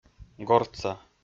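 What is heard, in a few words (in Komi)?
"гортса", Komi, male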